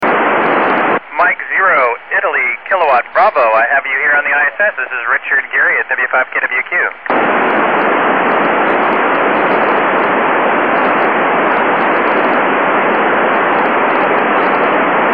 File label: ISS QSO; Recored Radio Contacts with the ISS